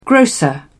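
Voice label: "grocer", British English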